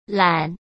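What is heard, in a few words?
"揽", Mandarin Chinese; hsk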